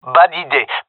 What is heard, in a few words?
disagree, male; vo